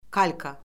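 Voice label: "kalka", Azerbaijani; Orfoqrafiya Lüğəti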